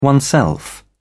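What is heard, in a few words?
"oneself", British English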